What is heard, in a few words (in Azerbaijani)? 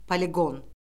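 "poliqon", Azerbaijani; Orfoqrafiya Lüğəti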